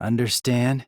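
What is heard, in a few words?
"Understand", male; rantaro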